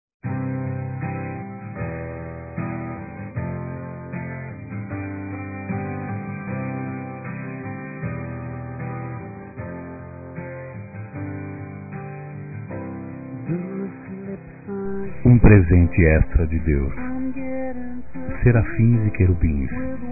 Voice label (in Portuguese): Dia das Mães Futura Mães Masculino; Telemensagens 2017